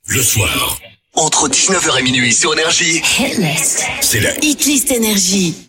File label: voice over; hit list; nrj